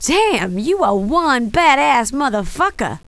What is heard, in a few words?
badass, female; kingpin loc; mona